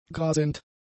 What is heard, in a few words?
"grasend", German; adjectives